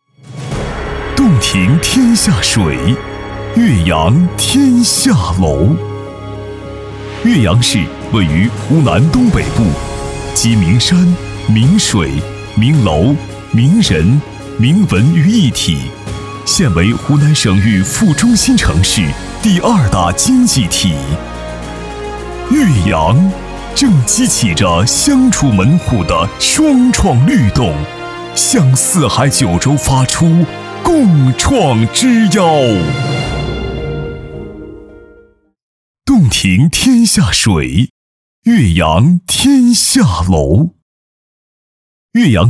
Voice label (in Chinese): 男 宣传片 阳光大气